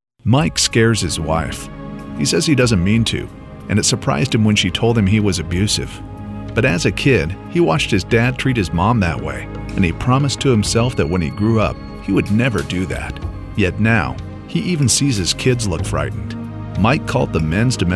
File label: Radio Ad